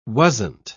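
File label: "wasn't", British English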